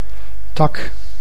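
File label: "tak", Dutch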